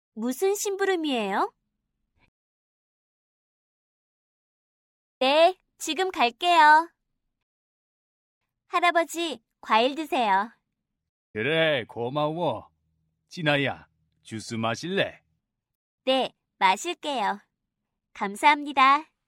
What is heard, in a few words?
mom korean